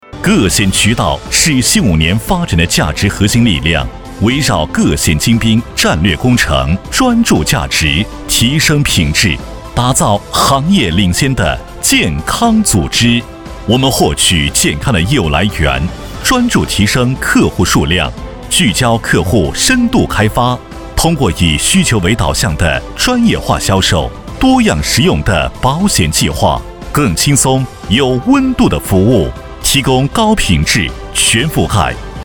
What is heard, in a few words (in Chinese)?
男 专题; 个险